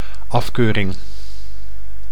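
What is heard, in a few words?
"afkeuring", Dutch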